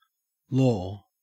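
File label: "law", Australian English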